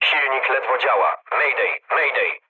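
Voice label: damaged engine, mood low, Polish speech; War Thunder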